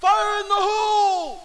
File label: us fire in hole; player